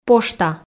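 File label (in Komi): "пошта", female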